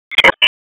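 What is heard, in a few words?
Raleigh Police North District Tactical, p25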